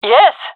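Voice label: cheer, gendarmerie female; vo; agents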